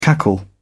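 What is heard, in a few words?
"cackle", British English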